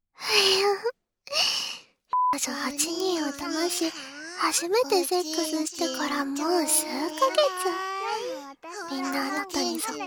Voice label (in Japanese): ハーレムボイス; ASMR